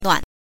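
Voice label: "nuan" Mandarin Chinese, neutral tone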